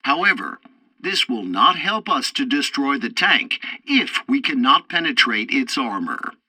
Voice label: tutorial tank arcade fourth target ammo, english; War Thunder